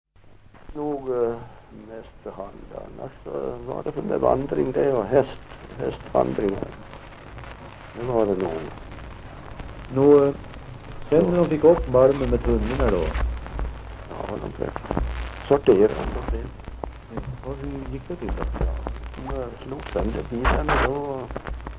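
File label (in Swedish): Torsåker Gästrikland, dialekter; Folkminnen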